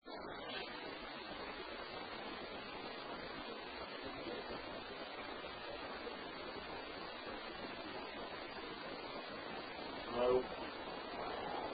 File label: Attic Male Voice HELLO; Case 021 Zepp Home